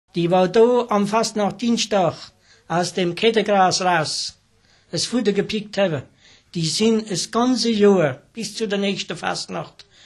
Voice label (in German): Hessisch; Sprachbsp; Mitteldeutsch